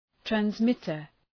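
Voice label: "transmitter", English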